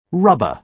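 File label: "rubber", British English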